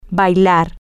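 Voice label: Spanish, to dance, female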